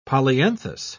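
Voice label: "polyanthus", British English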